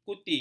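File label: "kuti", Malay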